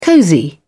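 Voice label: "cozy", British English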